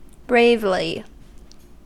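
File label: "bravely", US English; pronunciations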